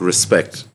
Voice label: LoFi Words, LoFi Vocals